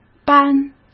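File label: "搬", Mandarin Chinese; chinese flashcards; hsk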